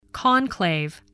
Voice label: "conclave", english

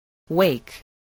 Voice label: "wake", spelling

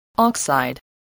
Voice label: "oxide", English voice

